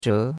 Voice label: "zhe2", chinois; Cours-de-Chinois